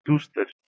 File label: "duster", Armenian